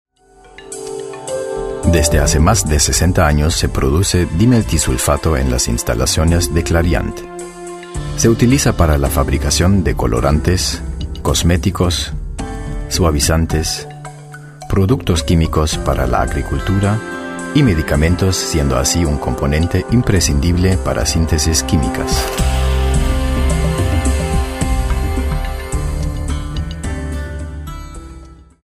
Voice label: spanish latin american explainer videos; professioneller zweisprachiger Sprecher

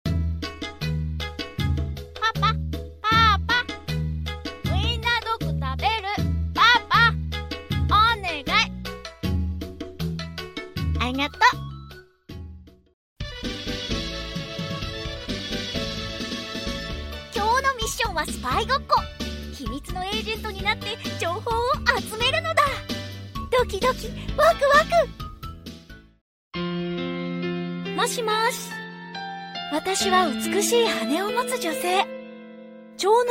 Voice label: japanese animation

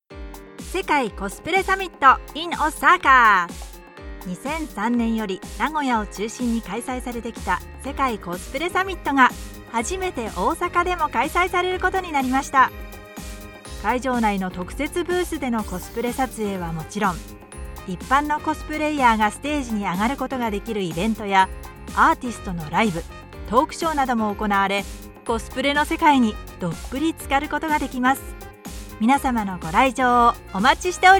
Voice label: japanese promos

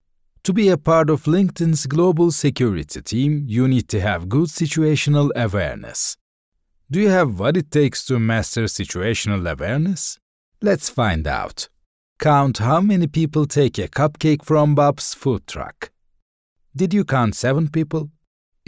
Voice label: english e-learning

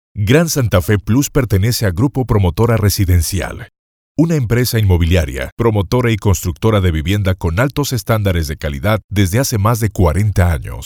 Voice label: spanish latin american audiobooks

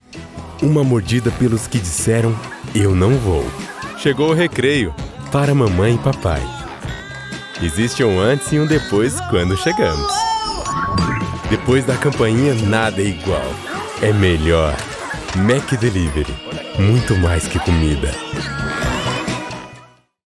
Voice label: portuguese brazilian commercial; Portugiesisch (Brasilianisch); professional voiceover in Brazil

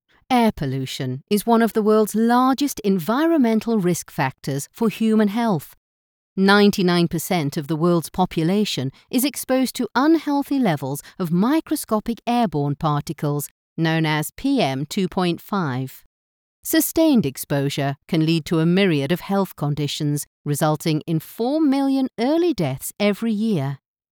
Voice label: english british corporate videos

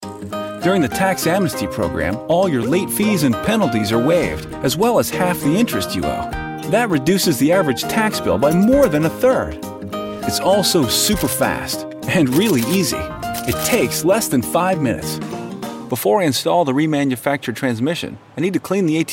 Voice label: english american corporate videos

